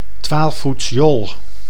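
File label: "twaalfvoetsjol", Dutch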